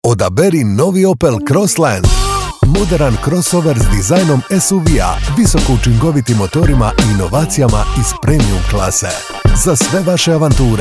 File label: radio, croatian